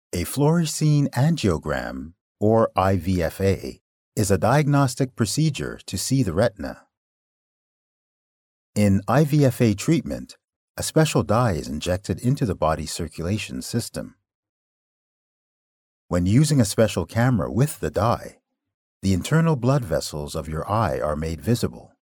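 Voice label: Ophthalmology Explainer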